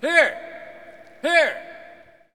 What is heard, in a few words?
Hockey Male "Here"; Hockey Vocal Sounds